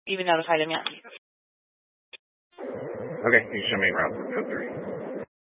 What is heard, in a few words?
Sheriff Dispatch; Mesa County